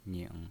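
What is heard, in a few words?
"ning" third tone, pinyin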